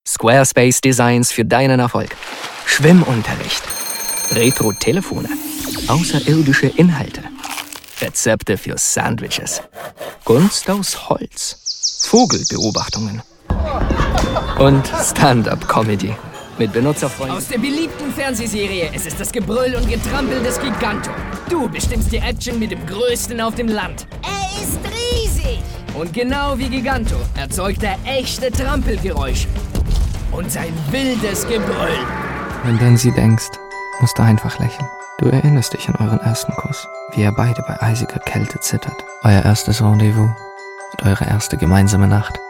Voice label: German